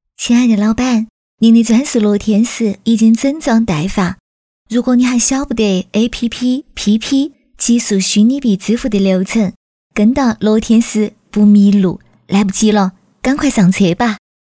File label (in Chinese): 女 方言配音 四川话